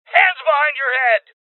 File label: Voices Order To Surrender Male